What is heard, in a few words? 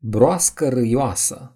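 "toad", Romanian; gcompris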